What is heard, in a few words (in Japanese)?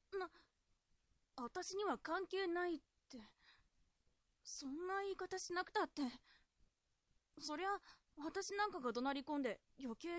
josei